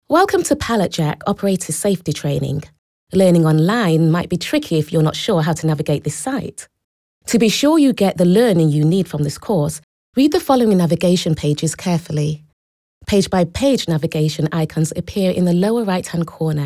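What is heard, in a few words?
British e-learning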